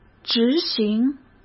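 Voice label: "执行", Mandarin Chinese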